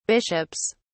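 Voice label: "bishops", British English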